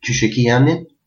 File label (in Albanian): name, albanian gheg